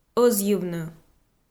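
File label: "ӧзйывны", Komi, female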